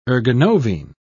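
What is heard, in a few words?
"ergonovine", British English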